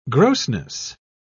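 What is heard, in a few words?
"grossness", British English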